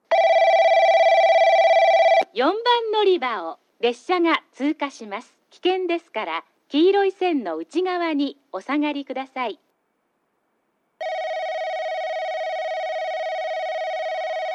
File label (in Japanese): 4番のりば通過放送